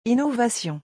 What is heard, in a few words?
"innovation", French